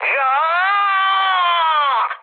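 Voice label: Korean damaged pilot mood low, speech; War Thunder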